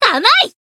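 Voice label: Voice attack, Japanese; tiramisu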